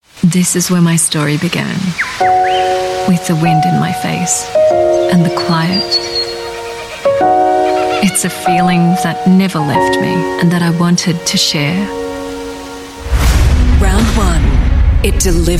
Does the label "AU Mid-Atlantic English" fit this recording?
Yes